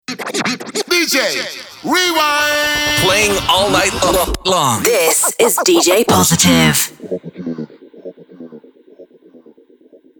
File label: DJ Drop